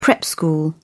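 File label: "prep school", British English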